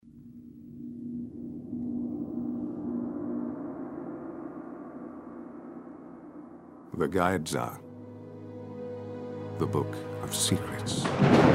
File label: animation